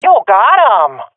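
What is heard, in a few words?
sees enemy killed, swat female; Counter Strike Model Pack; CS:GO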